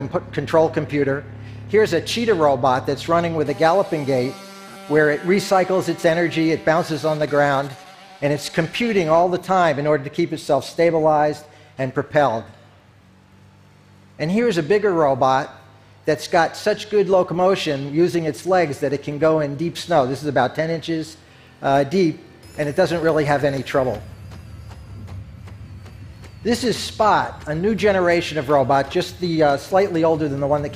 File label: audio sample english